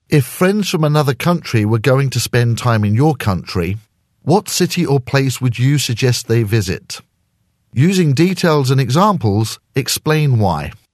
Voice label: speaking accents, friends from another country